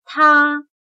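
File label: "他" Chinese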